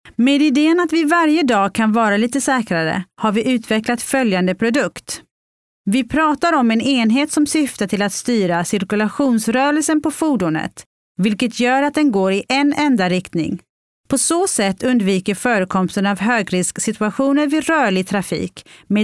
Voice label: swedish voiceover locutora sueca